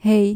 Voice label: "hei", Dutch